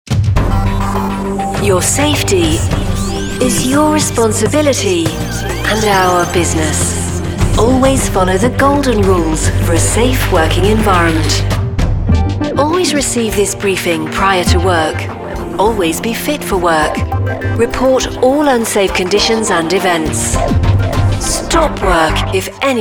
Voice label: british voice

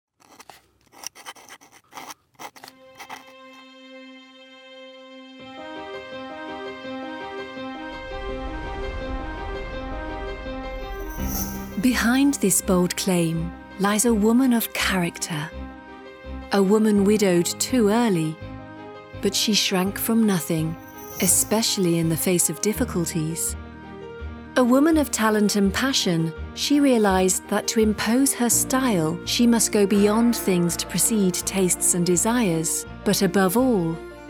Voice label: story audio, british voice

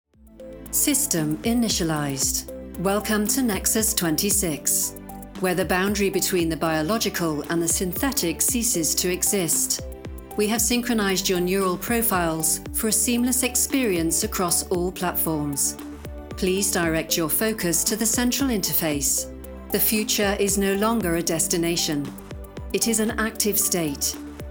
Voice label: VOG; voice of god

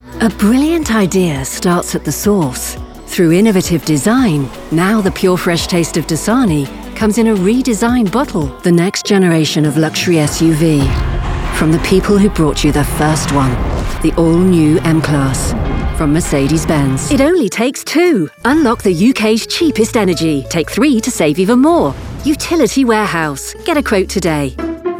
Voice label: COMMERCIAL, British voice

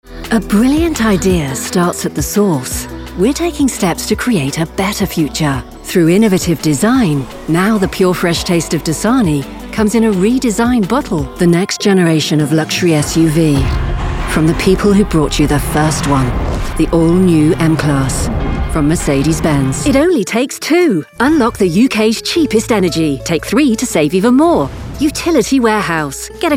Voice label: commercial, British voice

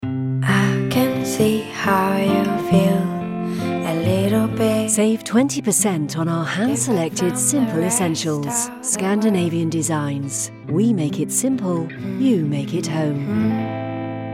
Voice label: Commercial, British voice; Scan Designs

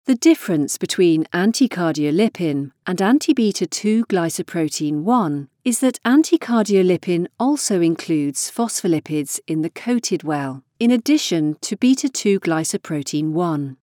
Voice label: medical sample, British voice

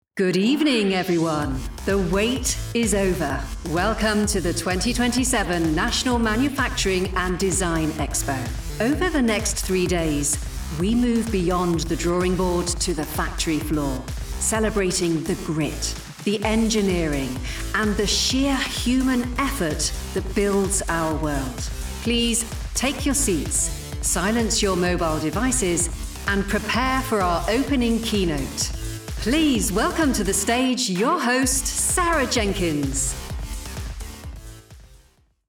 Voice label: voice of god, British voice

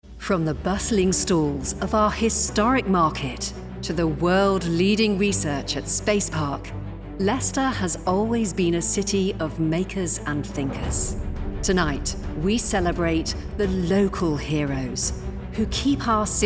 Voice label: VOG; voice of god

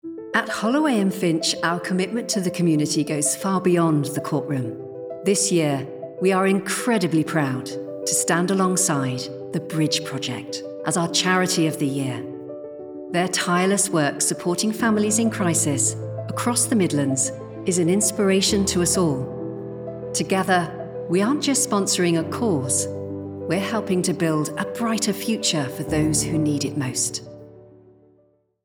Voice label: voice of god, British voice

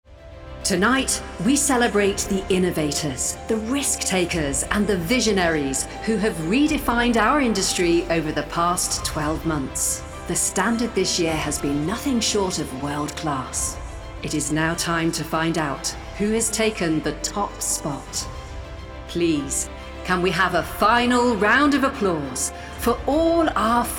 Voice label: VOG; voice of god

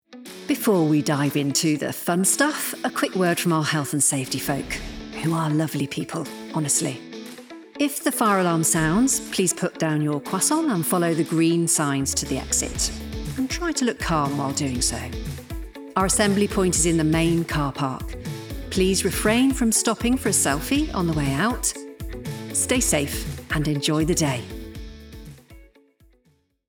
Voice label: voice of god, British voice

